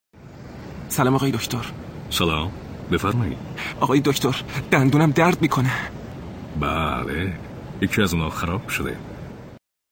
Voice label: Dialogue lesson Farsi